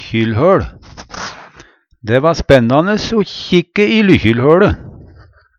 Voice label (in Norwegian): lykkjilhoL, Numedalsmål; Dæ va spennandes o kikke i lykkjilhøLe.; Substantiv inkjekjønn